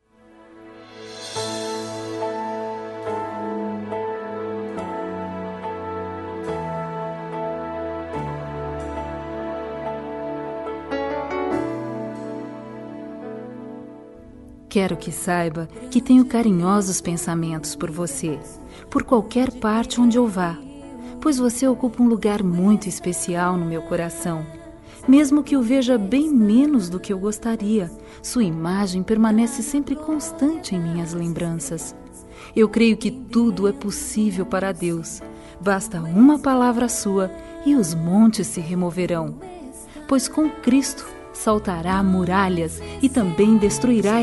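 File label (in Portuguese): Evangélica Paquera, female, telemensagens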